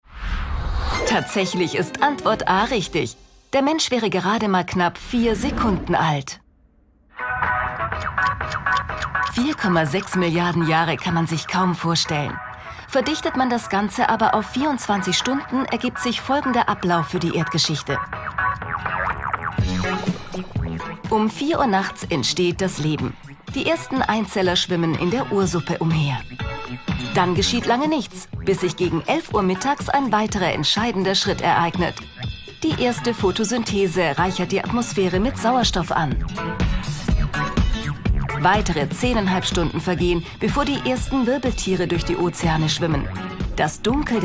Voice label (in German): Doku; Das DokuQuiz; ZDF